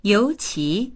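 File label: "you2qi2", Mandarin Chinese; 尤其; とりわけ; dictionary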